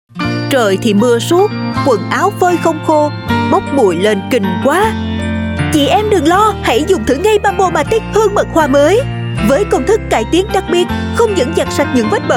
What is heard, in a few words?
South Female, Vietnamese; Bambo